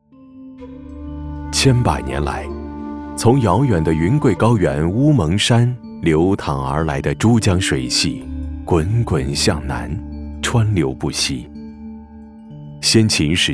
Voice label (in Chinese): 男 纪录片 发展影片 讲述